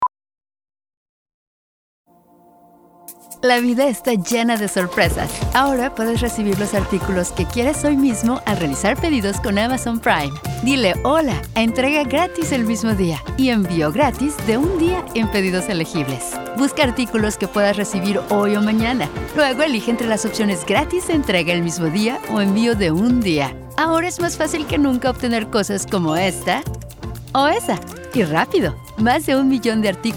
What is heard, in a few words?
radio; Amazon Prime